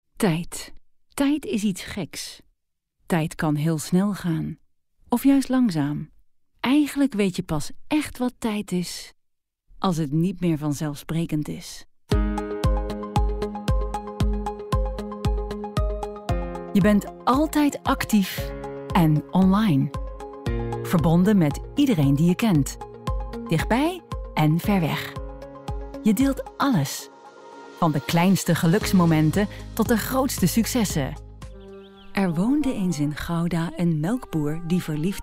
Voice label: female Dutch documentary and narration compilation